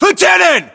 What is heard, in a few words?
rank lieutenant, US